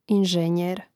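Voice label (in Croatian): "inženjer", hrvatski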